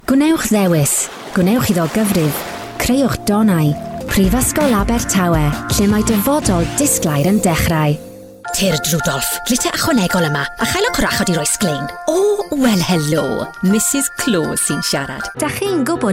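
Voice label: Welsh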